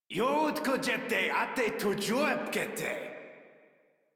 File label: Attack, Modular Corpus Male; Warframe